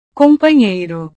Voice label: "companheiro", Portugués; compañero

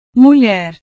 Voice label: "mulher", Portugués; mujer

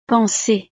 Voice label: "penser", frances

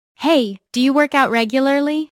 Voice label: dialogo, ingles; work out; phrasal verbs

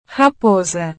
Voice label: "raposa", Portugués; zorro

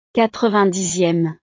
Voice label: "quatre-vingt-dixieme", frances

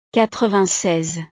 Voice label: "quatre-vingt-seize", frances

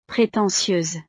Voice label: "pretentieuse", frances; estados animo

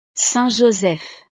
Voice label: "saint-joseph", frances; navidad

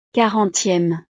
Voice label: "quarantieme", frances

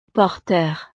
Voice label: "porter", frances

